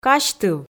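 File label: "казьтыв", Komi, female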